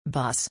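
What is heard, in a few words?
"BUS", English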